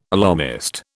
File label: "alarmist", British